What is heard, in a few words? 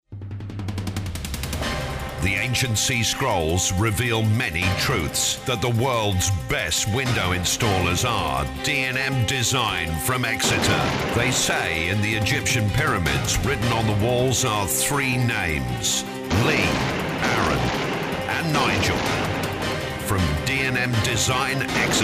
promotion voiceover